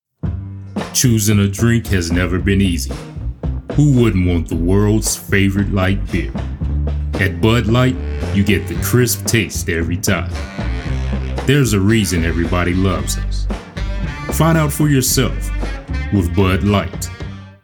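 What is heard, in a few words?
Bud light Voiceover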